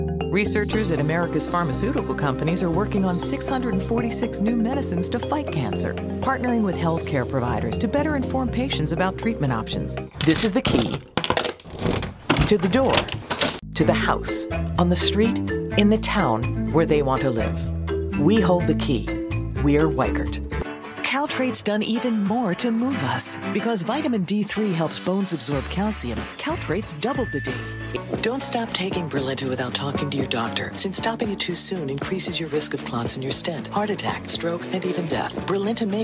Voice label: Voiceover Commercial Women; New York; Commercial Demo